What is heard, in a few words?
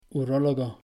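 "urólogo", Spanish; quizzes; pronouncing c g quiz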